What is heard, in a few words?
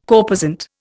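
"corposant", Indian English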